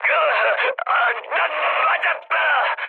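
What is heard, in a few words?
Korean damaged pilot mood high; War Thunder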